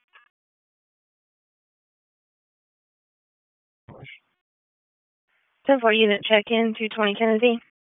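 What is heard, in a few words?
Raleigh Police Southeast District Dispatch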